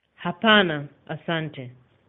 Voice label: no thanks swahili